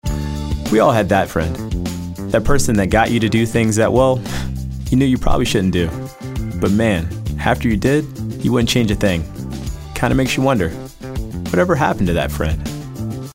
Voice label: conversational